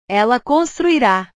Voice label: "ela construira", portugues; portugues/verbos

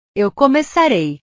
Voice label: "eu começarei", portugues; portugues/verbos

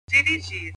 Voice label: "dirigir", portugues

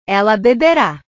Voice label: "ela bebera", portugues

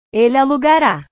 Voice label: "ele alugara", portugues

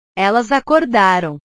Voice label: "elas acordaram", portugues

